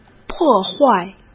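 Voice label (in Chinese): "破坏", Mandarin Chinese; hsk; chinese-flashcards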